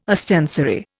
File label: "ostensory", Indian